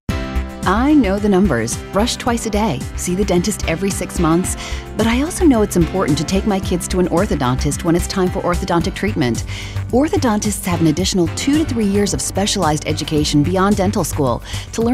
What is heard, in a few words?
Soccer Mom